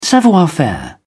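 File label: "savoir-faire", English, British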